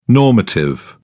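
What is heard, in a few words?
"normative", British English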